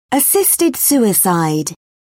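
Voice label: "assisted suicide", British English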